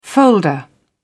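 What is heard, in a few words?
"folder", British English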